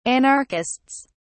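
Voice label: "anarchists", British English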